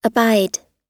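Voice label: "abide", American English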